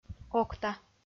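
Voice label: "окта", Komi, female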